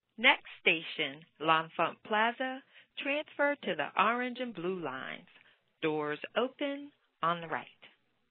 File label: DC metro announcement